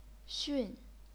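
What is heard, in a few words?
"xun", chinese pinyin, fourth tone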